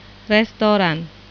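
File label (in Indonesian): "restoran", Indonesian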